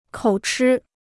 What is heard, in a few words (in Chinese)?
"口吃", Chinese